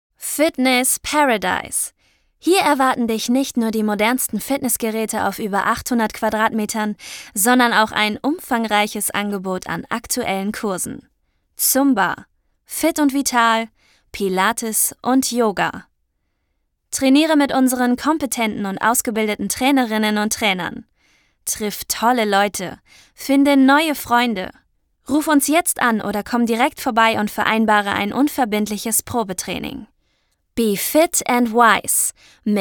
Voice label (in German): Werbung